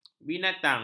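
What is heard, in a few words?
"binatang", Malay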